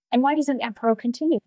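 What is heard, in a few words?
Voice Acting es, female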